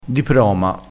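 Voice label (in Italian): "diproma", vocabolario dialettale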